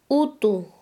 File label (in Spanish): "podrido", Quechua Chanka